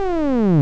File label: glot gliss